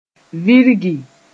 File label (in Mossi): "virgi", moore